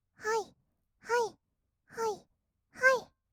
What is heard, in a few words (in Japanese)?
はいはい, kawaii voice; ASMR; 合いの手; 1200 kawaii voice!!